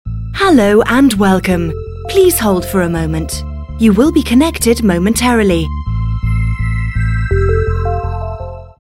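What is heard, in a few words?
uk, female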